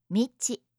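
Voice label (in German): "michi", japanische Mädchennamen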